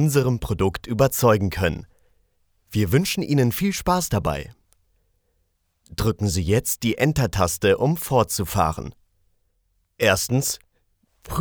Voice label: e learning; sprecher